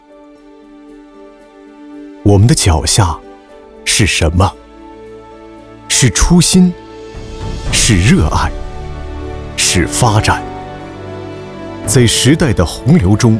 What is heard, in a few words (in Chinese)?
男 银行宣传片; 联合村镇银行宣传片文案